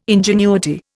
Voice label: "ingenuity", Indian

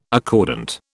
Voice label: "accordant", British

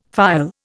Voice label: "phial", Indian; Dictionary

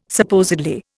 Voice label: "supposedly", Indian

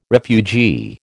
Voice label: "refugee", American

